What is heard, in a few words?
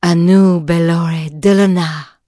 bloodelf, female; PhoenixCraft-1.16.5; PhoenixNPCPack; minecraft